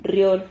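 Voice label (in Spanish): "rriol", Spanish syllable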